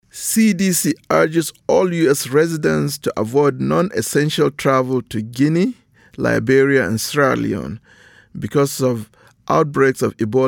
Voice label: English PSA Message; ebola; radio-spots; vhf